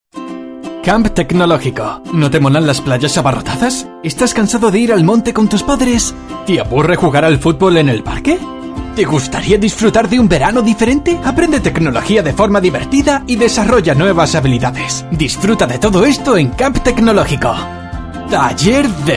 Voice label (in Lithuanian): Ispanu balsas, vyras